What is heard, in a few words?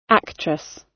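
"actress", British English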